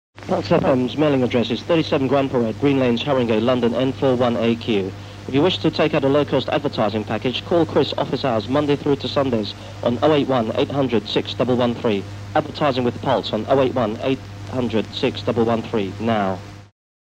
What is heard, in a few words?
pulse advertising, pirate radio adverts; mix tapes; pulse and chillin